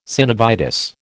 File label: "synovitis", American